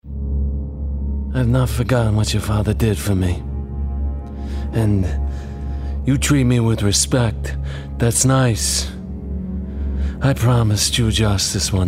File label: Gaming Character Godfather